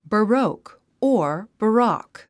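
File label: "baroque", English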